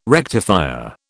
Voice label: "rectifier", British

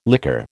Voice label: "liquor", American

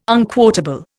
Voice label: "unquotable", Indian

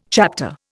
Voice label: "chapter", Indian

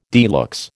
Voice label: "de luxe", American

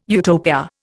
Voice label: "Utopia", Indian

